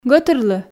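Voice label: "гӧтырлы", Komi, female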